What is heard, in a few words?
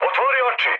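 Serbian player friendly fire mood med; War Thunder; speech srb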